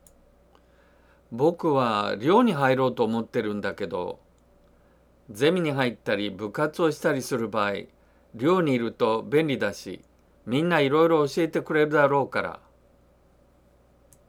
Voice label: dialogue line, japanese